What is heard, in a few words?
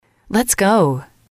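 lets go, English, female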